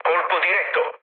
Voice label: bomb success, mood low, speech it; War Thunder

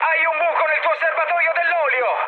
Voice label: damaged oil, mood high, speech it; War Thunder

